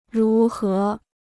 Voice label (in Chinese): "如何", Chinese